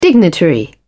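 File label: "dignitary", en, British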